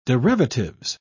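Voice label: "derivatives", English, British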